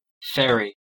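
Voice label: "ferry", US English; pronunciations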